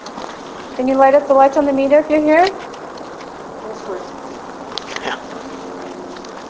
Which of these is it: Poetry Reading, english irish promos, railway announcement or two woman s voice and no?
two woman s voice and no